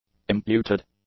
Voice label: "imputed", English; adjectives

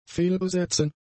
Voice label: "fehlbesetzen", german; adjectives

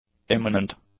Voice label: "imminent", English; adjectives

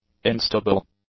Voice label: "instable", English; adjectives

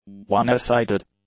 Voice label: "onesided", English; adjectives

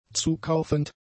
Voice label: "zukaufend", German; adjectives

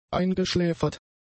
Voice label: "eingeschläfert", German; adjectives

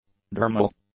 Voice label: "dermal", english; adjectives

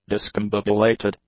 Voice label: "discombobulated", English; adjectives

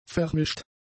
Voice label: "vermischt", German; adjectives

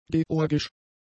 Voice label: "georgisch", German; adjectives

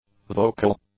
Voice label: "vocal", English; adjectives

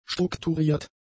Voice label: "strukturiert", German; adjectives

